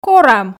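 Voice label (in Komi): "корам", Komi, female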